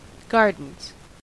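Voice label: "gardens", American English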